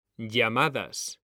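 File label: "llamadas", Spanish, male; mekanta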